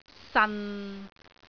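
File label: "san6", Cantonese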